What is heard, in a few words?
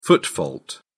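"foot fault", British English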